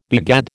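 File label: "begad", American English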